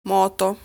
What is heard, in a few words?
"Moto", Italian; lesson 6 articles plural